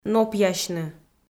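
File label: "нопъясьны", Komi-Zyrian, female; audio dict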